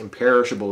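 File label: "imperishable", US English; pronunciations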